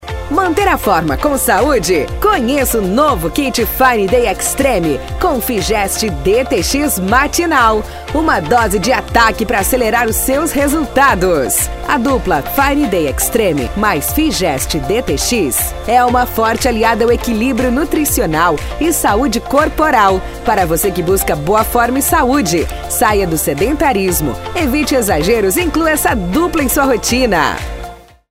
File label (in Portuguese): Spot; Fineday xtreme